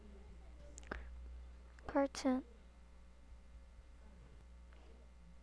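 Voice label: "cartoon", spoken word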